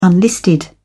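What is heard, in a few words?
"unlisted", English, British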